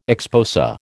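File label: "exposé", American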